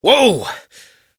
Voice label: Horse Stop Adult; Duviri